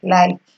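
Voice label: "like", Nigerian Pidgin, female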